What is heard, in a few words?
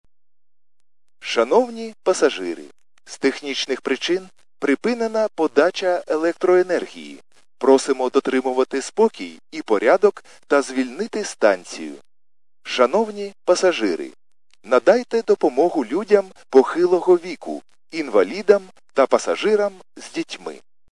khs st male, subway station